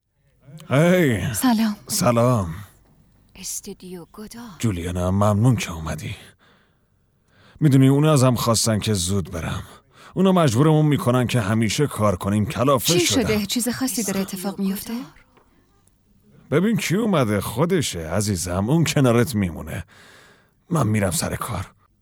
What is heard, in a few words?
dub